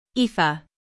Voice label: "aoife", US; IPA: /ˈiː.fə